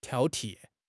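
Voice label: "挑剔", Mandarin Chinese; HSK6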